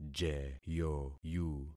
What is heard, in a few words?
"jeyoyu", concat syllables; Affixing experiment